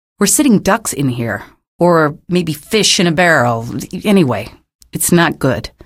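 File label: citizen remarks, female; source engine; episodic; episode 1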